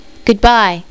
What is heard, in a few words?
5 female